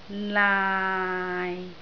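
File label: "laai6", Cantonese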